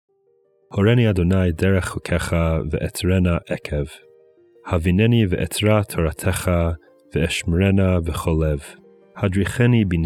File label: poetry and prayer psalm hebrew